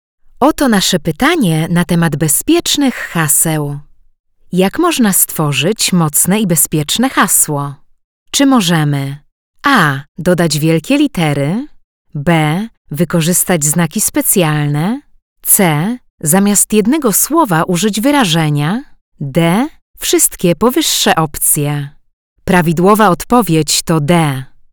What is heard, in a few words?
Female Polish Voice Internal Training